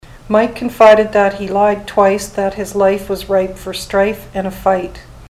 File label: mike lied twice, ontario